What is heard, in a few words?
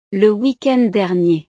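"weekend dernier", French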